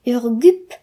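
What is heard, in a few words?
"vulture", Breton; gcompris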